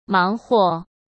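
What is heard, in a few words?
"忙活", Mandarin Chinese; chinese-flashcards; hsk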